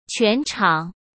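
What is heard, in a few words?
"全场", Mandarin Chinese; chinese flashcards; hsk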